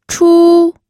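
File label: "chu1", Mandarin Chinese; pinyin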